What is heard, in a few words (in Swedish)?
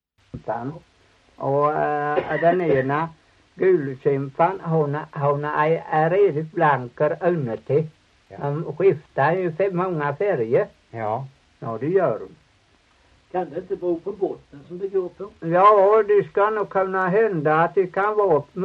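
Torhamn Blekinge, dialekter; Folkminnen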